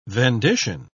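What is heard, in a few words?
"vendition", British English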